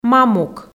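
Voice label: "мамук", Komi, female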